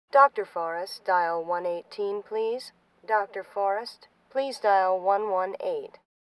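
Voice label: hospital p.a. announcement; Asian Show Sound Effects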